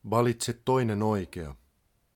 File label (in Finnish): 2nd right, Finnish, male; Opas